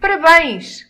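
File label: congratulation, Scottish Gaelic; gcompris-data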